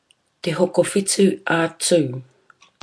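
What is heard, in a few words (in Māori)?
"te hokowhitu a tu", Māori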